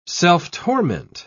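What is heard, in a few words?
"self-torment", British English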